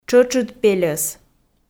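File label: "тшӧтшыд пельӧс", Komi, female; audio dict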